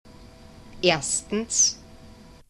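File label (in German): "erstens", German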